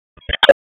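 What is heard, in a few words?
Sheriff's Office Dispatch; WSO DISP 1; wake p25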